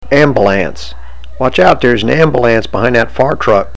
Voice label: "ambullance", baltimorese